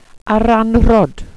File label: "aranrhod", welsh pronouciations; Wales/welsh names